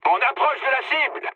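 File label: near waypoint, mood medium, French; War Thunder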